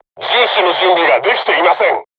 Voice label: voice message art reloading, crew tanks japanese